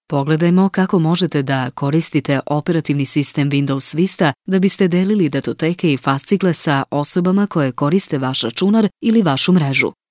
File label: Serbian; foreign languages